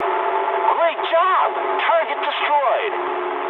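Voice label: English bomb success mood med; War Thunder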